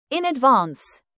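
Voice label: "in advance", British; Time, history; Thời gian, lịch sử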